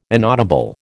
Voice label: "inaudible", American